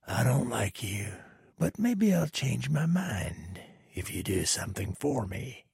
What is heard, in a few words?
trader male dislike quest offer